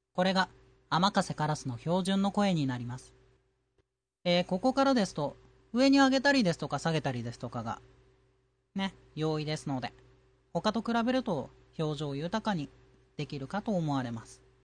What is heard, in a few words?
normal, voice